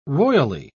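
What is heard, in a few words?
"royally", British English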